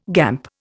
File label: "gamp", Indian